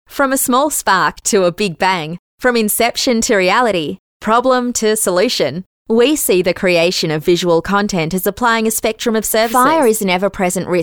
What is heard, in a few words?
Natural